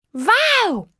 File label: Male knock